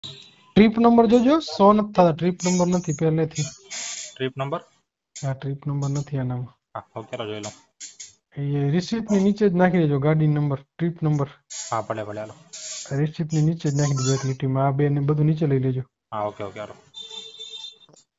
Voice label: Call recording